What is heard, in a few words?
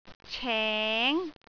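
"ceng2", Cantonese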